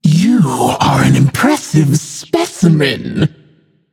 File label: vox emote; smite; arachne